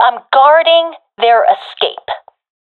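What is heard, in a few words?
guarding escapezone, professional female; vo; Counter Strike Model Pack; CS:GO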